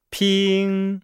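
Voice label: "ping", Chinese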